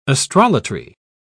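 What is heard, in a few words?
"astrolatry", British English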